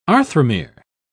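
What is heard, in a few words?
"arthromere", English, British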